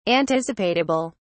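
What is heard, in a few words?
"anticipatable", English, British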